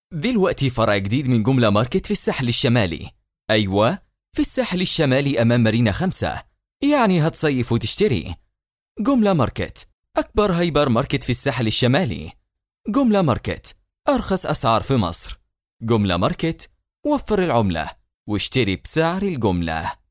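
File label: Arabic Voiceover Sample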